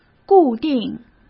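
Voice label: "固定", Mandarin Chinese; chinese flashcards; hsk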